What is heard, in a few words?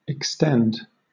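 "extend", British English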